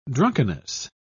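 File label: "drunkenness", British English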